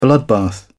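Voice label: "bloodbath", British English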